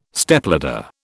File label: "Stepladder", British